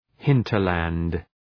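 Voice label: "hinterland", English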